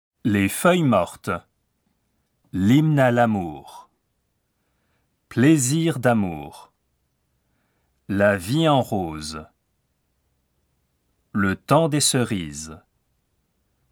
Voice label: PRONONCIATION JANVIER